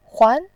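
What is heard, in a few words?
"huan", Mandarin Chinese, second tone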